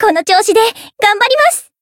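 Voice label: win, Japanese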